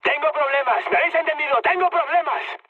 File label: aircraft damaged, mood high, speech; War Thunder